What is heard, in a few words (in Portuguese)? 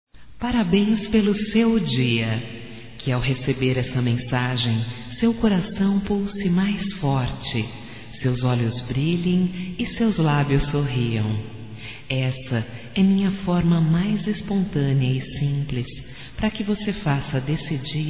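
voz, Aniversário neutro, female, Telemensagens; Telemensagens 2017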